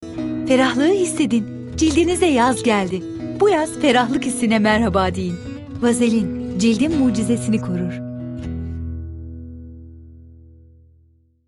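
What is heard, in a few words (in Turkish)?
sicak sakin, seslendirme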